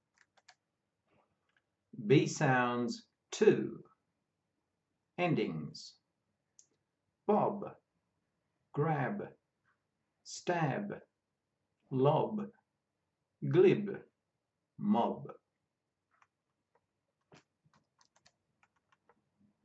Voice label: B sounds, pronunciation of australian english